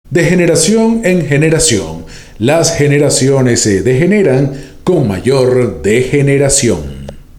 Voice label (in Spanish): DEGENERACIÓN trabalenguas; Spanish Tongue Twisters To Perfect Your Pronunciation